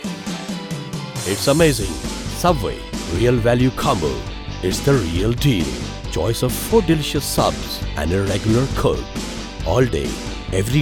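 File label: TVC english; subway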